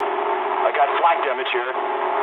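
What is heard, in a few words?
English damaged aa mood low; War Thunder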